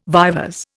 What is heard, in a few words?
"vivers", Indian